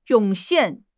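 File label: "涌现", Mandarin Chinese; HSK6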